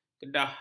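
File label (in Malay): "kedah", Malay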